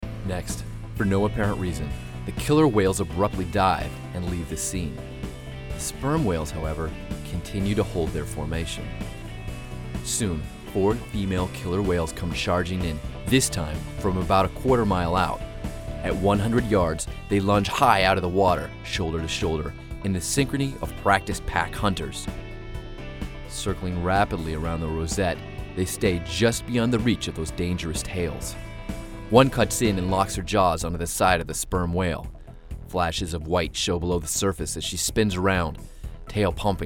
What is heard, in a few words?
Narration